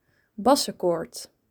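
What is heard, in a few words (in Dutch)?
"Bassecourt", Dutch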